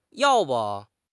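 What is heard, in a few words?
"yao4 bu4", Chinese; 汉语口语新时代-初级下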